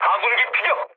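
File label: Korean aircraft destroyed mood low; speech; War Thunder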